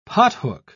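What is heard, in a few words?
"pothook", British English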